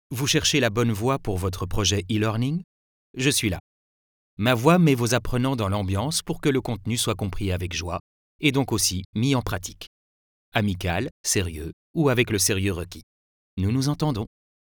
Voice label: Talent for E-Learning